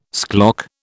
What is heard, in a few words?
"schlock", British